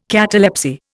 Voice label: "catalepsy", Indian